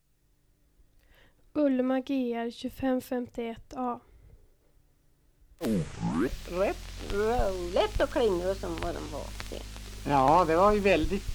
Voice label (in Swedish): Mattmar, dialekter, Svenska sagor; Folkminnen